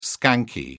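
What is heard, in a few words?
"skanky", British English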